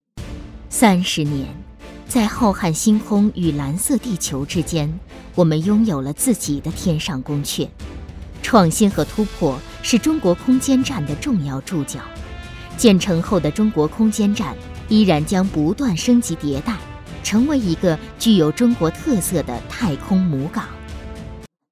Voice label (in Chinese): 女 新闻类型片; 中国空间站